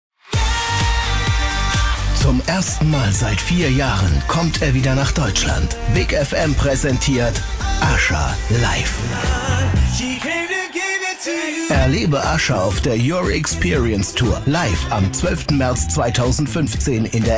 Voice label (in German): Funkspot; Big FM Live SAP Arena